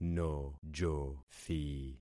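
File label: "nojothi", concatenated syllables; Affixing experiment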